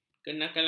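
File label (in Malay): "kenakalan", Malay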